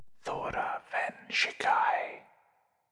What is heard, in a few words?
incantations whispers masc forward standard; psfx